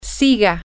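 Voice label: continue, Spanish, female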